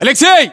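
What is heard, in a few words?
"aleksei", battlechatter, Russian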